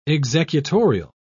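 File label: "executorial", British English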